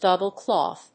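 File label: "Double cloth", English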